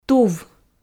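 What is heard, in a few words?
"тув", Komi, female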